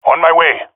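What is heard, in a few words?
on my way, jungle male epic; omw; vo; agents; Source Model Packs; Counter Strike Model Pack; CS2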